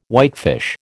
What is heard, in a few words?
"whitefish", American